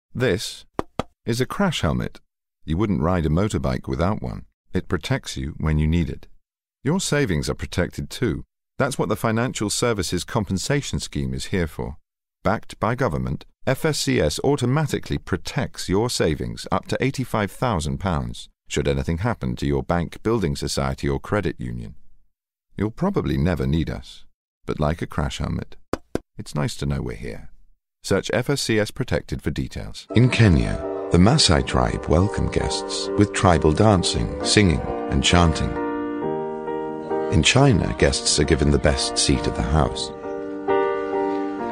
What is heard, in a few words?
commercial; Natural Accent: RP